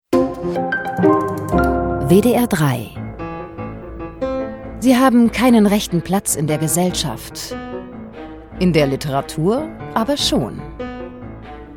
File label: radio trailer